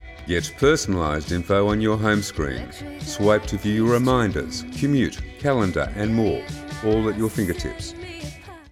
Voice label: info with backing